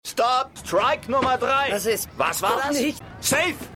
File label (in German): Schiedsrichter, Stimmproben; Seinfeld